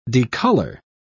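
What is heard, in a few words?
"decolour", British English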